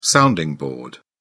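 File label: "sounding board", British English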